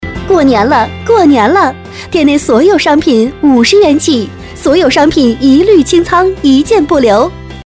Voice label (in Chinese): 促销 女 激情